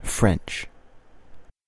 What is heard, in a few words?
french, verb to be